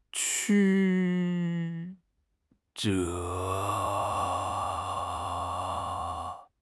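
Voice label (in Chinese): "曲折", Chinese; HSK; HSK6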